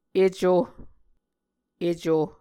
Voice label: "eco", Muscogee